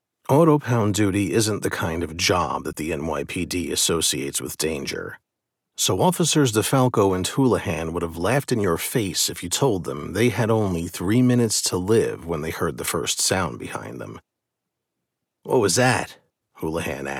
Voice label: Horror 3rd-Person Dialogue NY Accent; Horror 3rd-Person MM-Dialogue